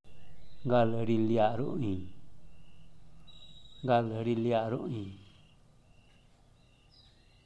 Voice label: "gal riliaʼ ruʼin", zapoteco teotitlan; malaliento